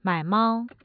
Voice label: mai3 mao1, Mandarin tone